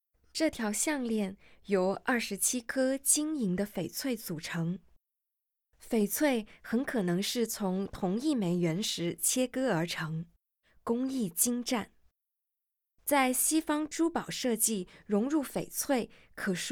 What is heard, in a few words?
Mandarin, audio guide